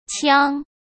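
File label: "呛", Mandarin Chinese; chinese flashcards; hsk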